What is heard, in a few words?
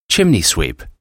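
"chimney sweep", English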